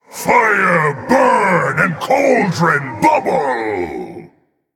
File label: Male Elemental Play